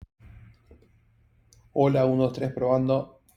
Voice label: voice message